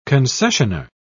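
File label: "concessioner", British English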